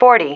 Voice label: "forty", female